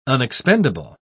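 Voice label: "unexpendable", British English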